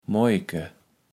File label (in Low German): "moajeke", kaevels platt